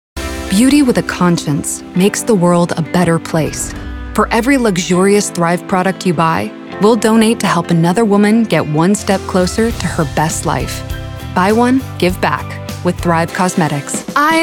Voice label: commercial women

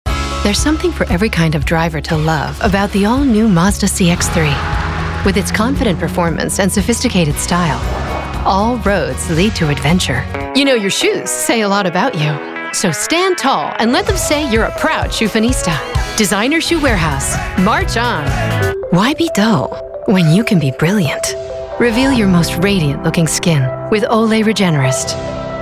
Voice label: commercial women

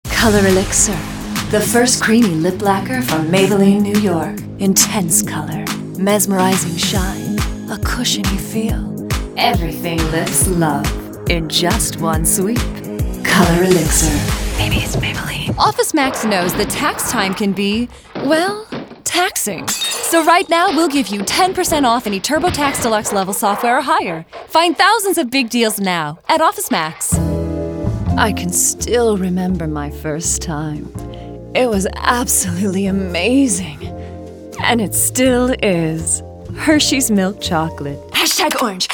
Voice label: commercial, commercial women

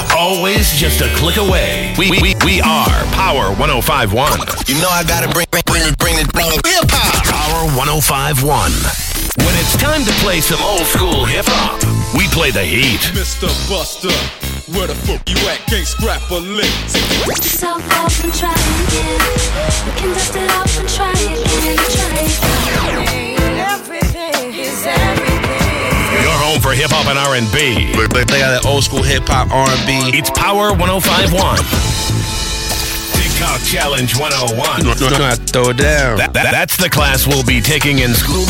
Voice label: animation men speaker toyz, voices men; Speaker Toys

